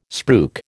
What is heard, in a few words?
"spruik", American